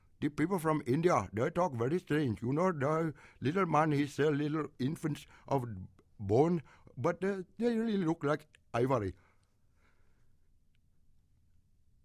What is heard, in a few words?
Indian